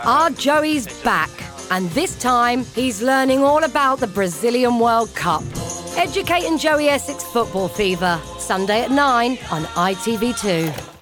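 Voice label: Promo, Clear, Relaxed, English; ITV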